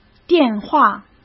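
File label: "电话", Mandarin Chinese; chinese-flashcards; hsk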